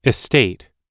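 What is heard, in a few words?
"estate", English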